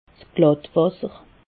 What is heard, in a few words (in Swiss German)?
"glattwasser", alsace